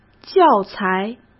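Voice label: "教材", Mandarin Chinese; chinese-flashcards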